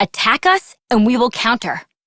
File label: Operator Voice; Battle Begins; en; Operator Mission